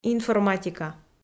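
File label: "информатика", Komi, female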